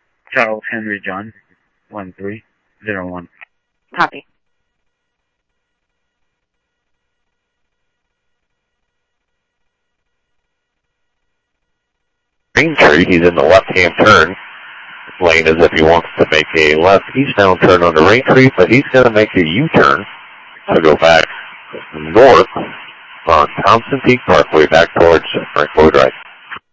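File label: Simulcast police department, scanner audio trunk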